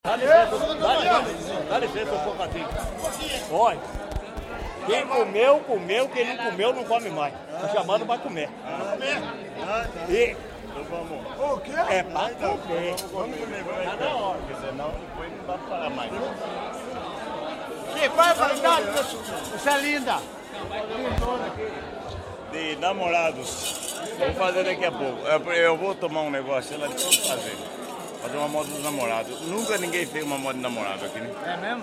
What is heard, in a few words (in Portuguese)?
batuque de tiete fala